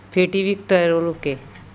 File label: "pheTibik tEroloke", Andamanese; send the box